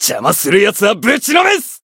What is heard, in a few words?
Voice chant, jp